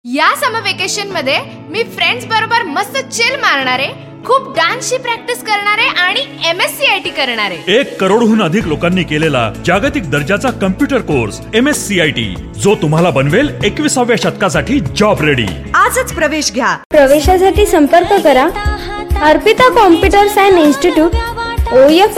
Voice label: Computer voice ad